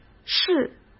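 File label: "市", Mandarin Chinese; chinese flashcards; hsk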